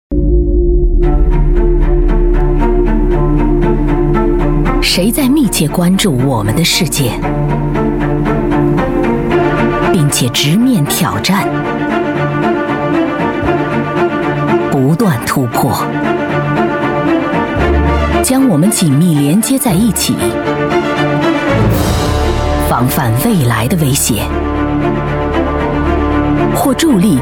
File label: chinese voice over female narrator